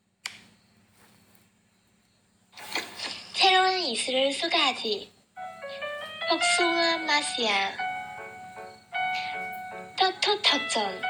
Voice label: 韩语配音

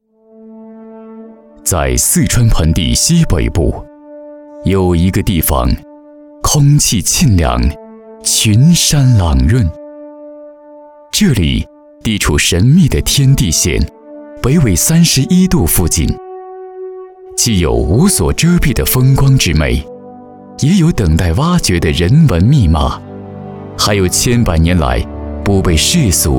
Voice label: 专题片; 大美北川北川政企

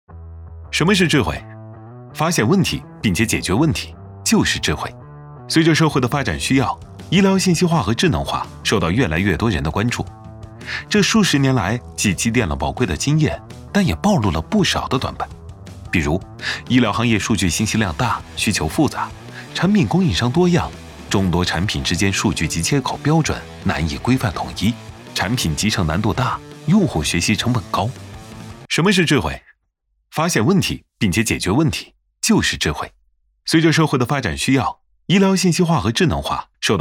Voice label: 男智慧医院文稿

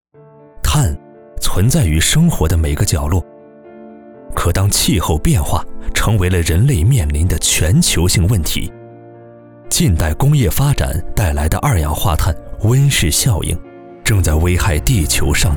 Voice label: 宣传片; 碳达峰

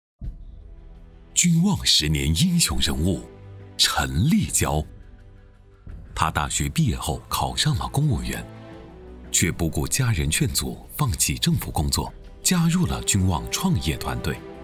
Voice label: 颁奖样音

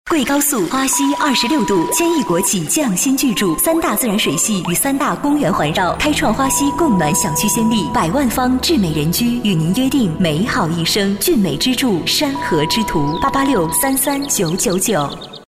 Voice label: 女; 花溪女

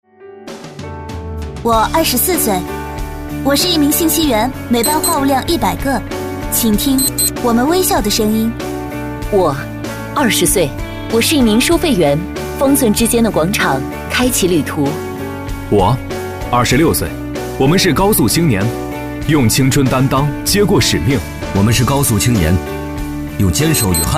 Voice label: 自白宣传片正能量积极

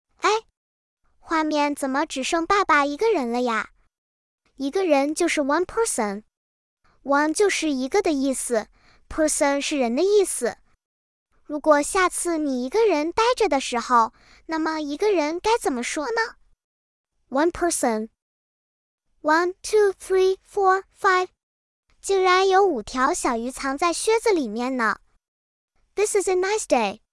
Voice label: 儿童英语教学有声书

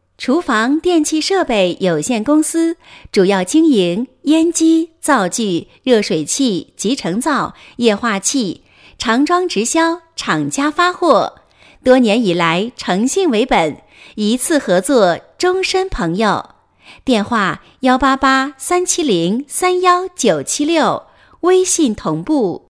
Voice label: 彩铃

